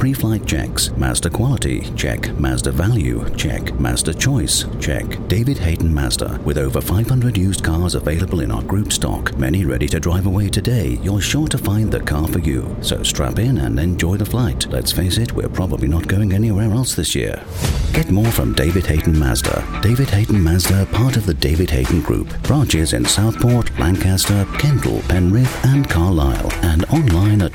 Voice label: British Voiceover AIRLINE PILOT CAPTAIN Radio Commercial RP; DH Mazda